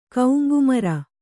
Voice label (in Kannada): "ಕೌಂಗುಮರ", Kannada